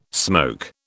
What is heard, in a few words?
"smoke", British